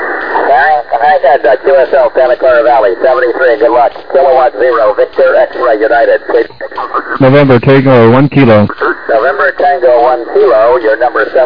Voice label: SSB USB 21MHz